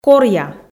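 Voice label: "коръя", Komi, female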